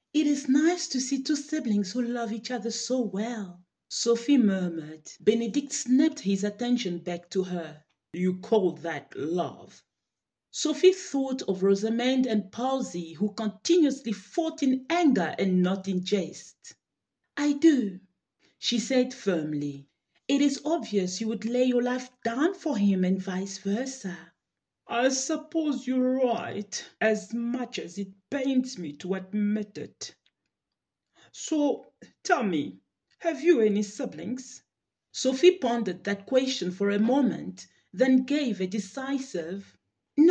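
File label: Lingala Accented English; GoLocalise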